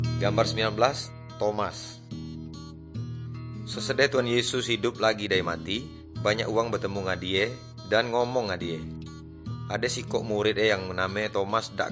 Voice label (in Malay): good news melayu tanjung raja